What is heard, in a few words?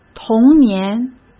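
"童年", Mandarin Chinese; chinese flashcards; hsk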